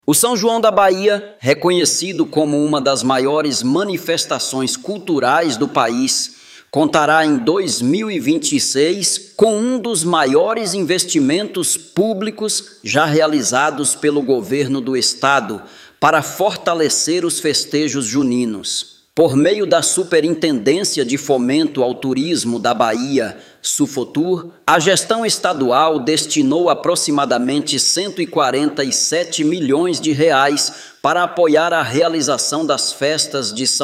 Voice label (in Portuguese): Locutor